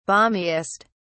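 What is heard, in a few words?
"balmiest", British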